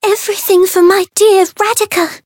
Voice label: variable chant; ewella